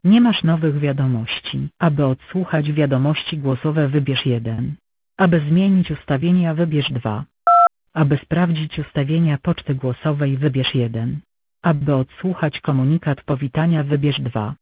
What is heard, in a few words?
Voice mail